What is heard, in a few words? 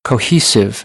"cohesive", American English; oʊ - əʊ